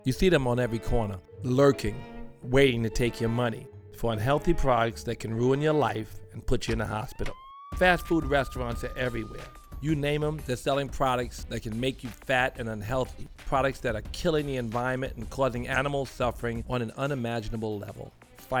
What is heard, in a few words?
vegan radio psa; russell simmons; peta; try vegan